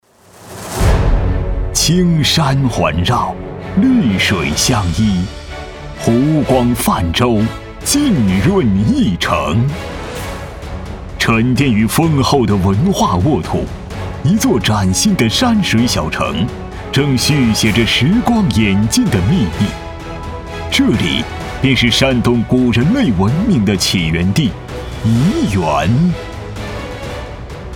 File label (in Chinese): 男,激昂高亢,汇报; 山东怡园汇报